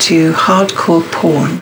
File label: LoFi Words, LoFi Vocals